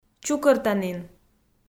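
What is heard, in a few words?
"чукӧртанін", Komi-Zyrian, female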